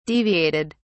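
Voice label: "deviated", British English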